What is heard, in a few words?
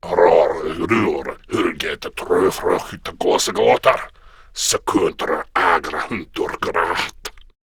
Patrol, Male